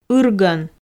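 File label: "ыргон", Komi, female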